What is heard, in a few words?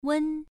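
"wen" Mandarin Chinese, first tone, pronunciation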